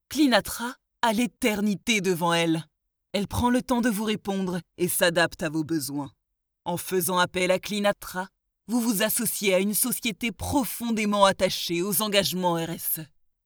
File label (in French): Voix off Dramatique; Cleanatra